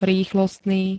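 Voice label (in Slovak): "rýchlostný", Slovak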